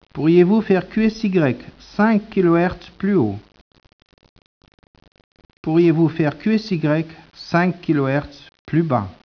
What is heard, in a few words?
speech, French